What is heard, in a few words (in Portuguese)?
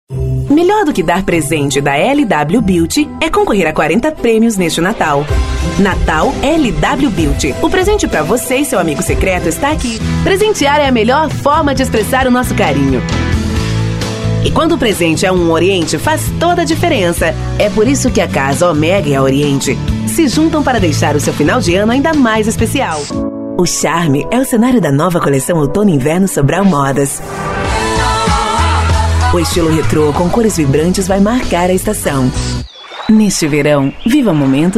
locutora